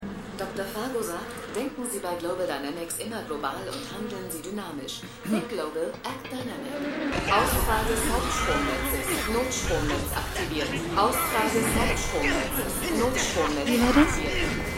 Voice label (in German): Automatische Durchsage; Stimmproben; Eureka